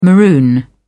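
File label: "maroon", British English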